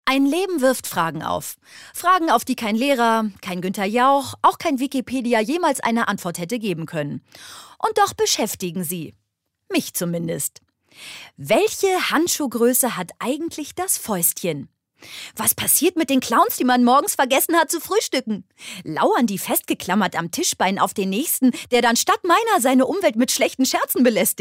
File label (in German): Schauspiel Energisch